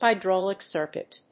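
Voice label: "HYDRAULIC CIRCUIT", English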